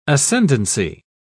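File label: "ascendency", British English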